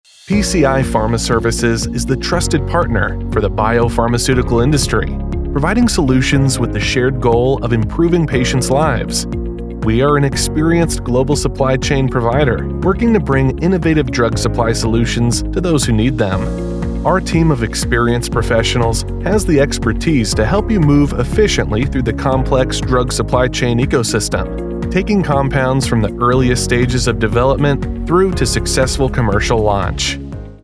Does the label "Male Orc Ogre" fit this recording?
No